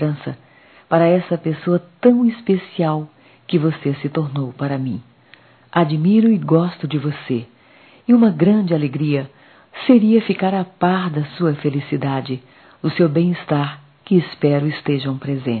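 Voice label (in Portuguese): Páscoa Distante female; Telemensagens 2017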